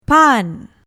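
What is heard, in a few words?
"pan", Malay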